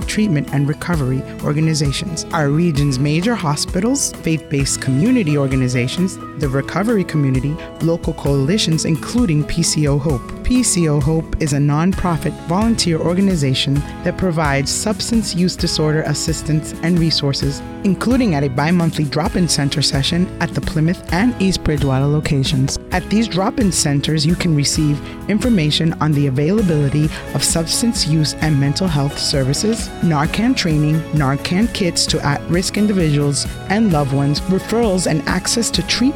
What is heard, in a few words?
POLICE PSA SPOT